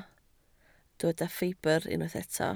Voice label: "ffibr", Welsh

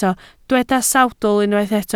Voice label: "sawdl", Welsh

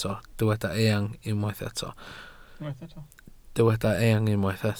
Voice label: "eang", welsh

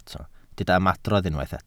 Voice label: "ymadrodd", Welsh

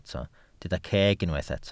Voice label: "ceg", Welsh

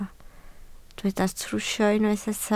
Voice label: "trwsio", Welsh

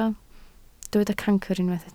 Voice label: "cancr", Welsh

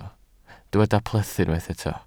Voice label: "plethu", Welsh

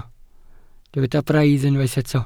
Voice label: "braidd", Welsh

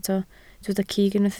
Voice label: "cig", Welsh

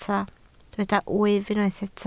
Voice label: "wyf", Welsh

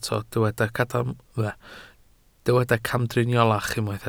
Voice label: "camdriniolach", Welsh

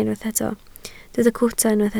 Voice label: "cwta", Welsh

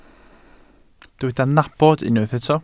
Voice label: "nabod", Welsh

